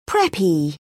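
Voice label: "preppy", British English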